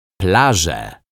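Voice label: "plaze", Polish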